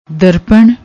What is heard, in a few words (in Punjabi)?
"darpan", Punjabi